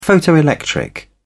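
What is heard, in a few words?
"photoelectric", British English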